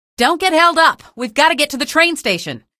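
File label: citizen squad flee, female; vo; npc; source engine; episodic; episode 1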